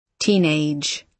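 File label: "teenage", British English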